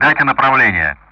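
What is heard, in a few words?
Speech Sound Russian; DCS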